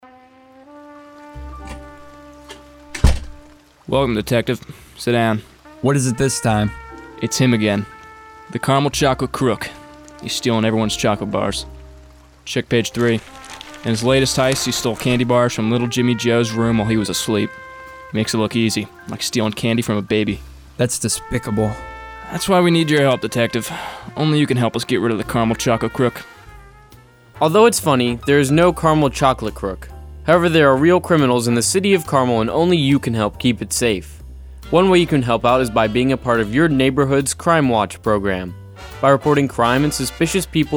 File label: PSA